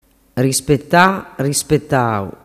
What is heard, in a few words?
"rispetatau", dialettu